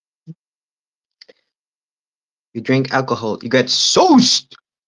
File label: voice message